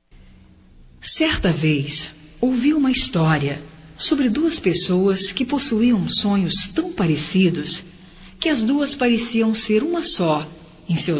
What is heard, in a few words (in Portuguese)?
Saudade da Familia Feminino, Telemensagens; Saudades